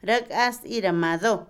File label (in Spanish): yema (de huevo), Otomí, mujer; Comida; INALI